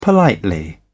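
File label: "politely", British English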